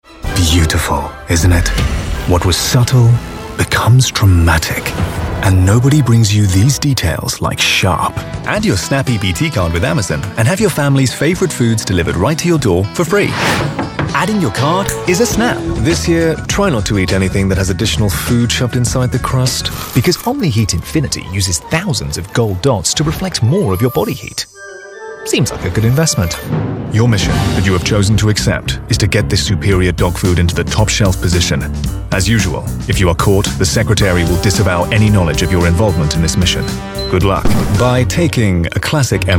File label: english british commercial